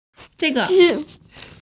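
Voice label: "zhi zhu", Mandarin Chinese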